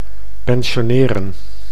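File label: "pensionneren", Dutch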